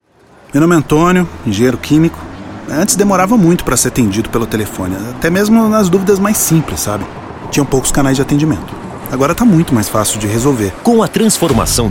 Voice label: spot radio call center; campanha institucional